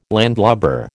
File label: "landlubber", American